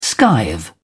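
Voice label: "skive", British English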